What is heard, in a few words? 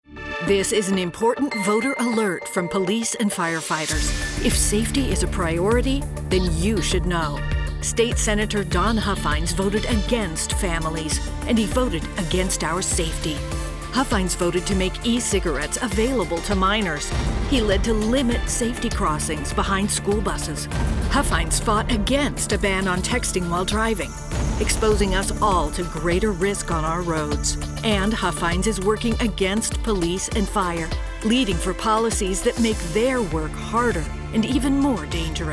Pol Radio; Huffines Is Dangerous